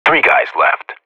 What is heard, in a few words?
radio bot kill count, vo professional; Counter Strike Model Pack; CS:GO